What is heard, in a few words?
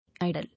"Idle", English